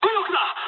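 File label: right wing mood high, speech japanese; War Thunder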